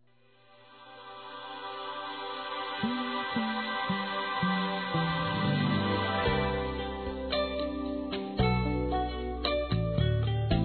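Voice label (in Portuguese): Casamento Esposa Masculino; Aniversários; ANIVERSARIO romantico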